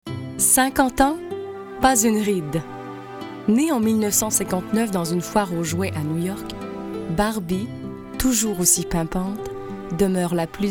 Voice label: narration, voix femmes

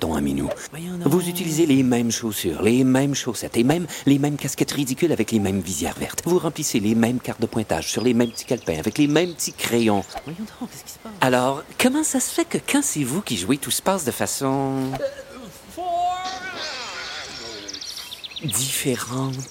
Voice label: open de golf, voix, hommes

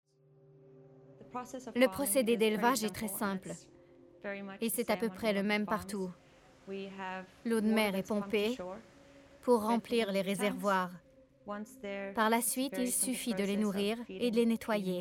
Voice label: narration, voix femmes

